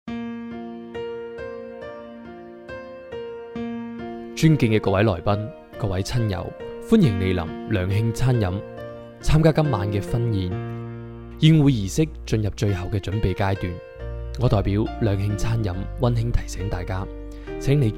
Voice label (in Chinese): 婚礼 粤语